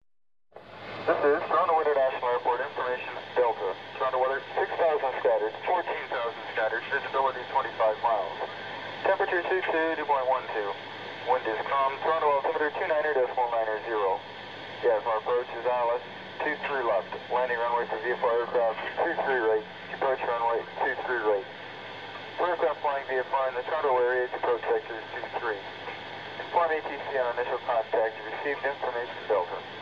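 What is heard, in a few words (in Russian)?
звук переговоров диспетчеров аэропорта на английском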